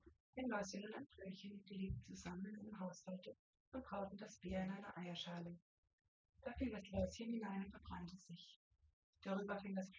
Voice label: female4 synthconv; SiSEC08; SiSEC underdetermined

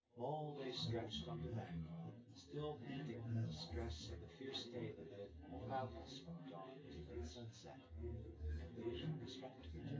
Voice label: male synthconv

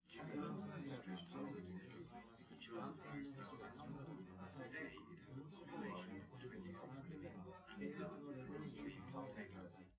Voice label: male4 liverec 250ms 1m sim

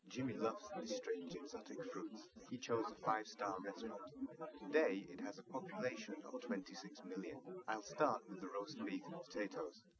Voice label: male live rec; SiSEC08; SiSEC underdetermined

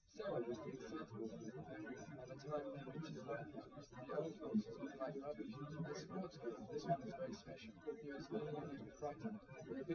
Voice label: male, liverec, src

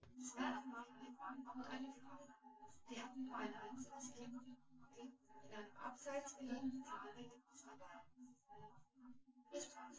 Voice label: female, synthconv

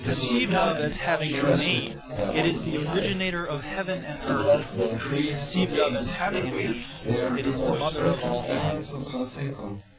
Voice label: male inst sim; SASSEC07

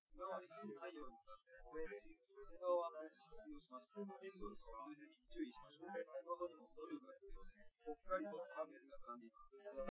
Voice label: male, live rec; SiSEC08; SiSEC underdetermined

